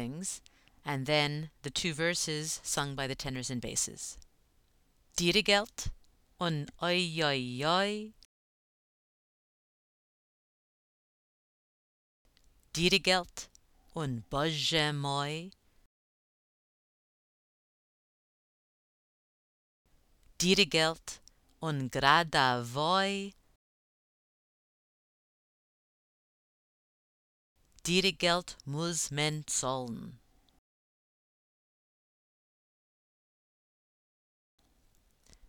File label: pronunciation drill; dire gelt